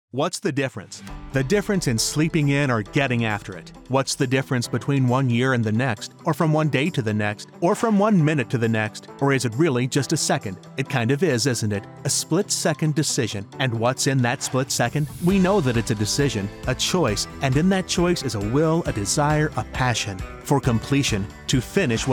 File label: Full Length Health Medical Commercial, male